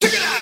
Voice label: "yeah", dirty